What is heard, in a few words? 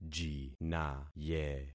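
"jinaye", concatenated syllables; Affixing experiment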